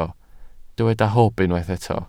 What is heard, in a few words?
"hwb", Welsh